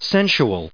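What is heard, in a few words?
"sensual", English, us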